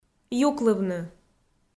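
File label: "юклывны", Komi, female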